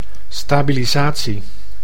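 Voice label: "stabilisatie", Dutch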